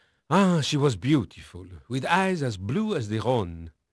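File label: French male, voice; Pirates Of The Caribbean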